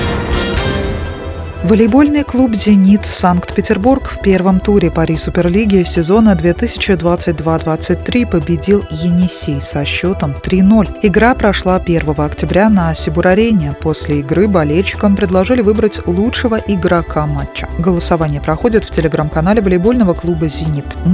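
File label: sports news; novosti sporta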